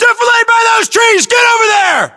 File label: US order cover trees, battlechatter, english